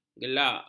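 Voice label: "gelak", Malay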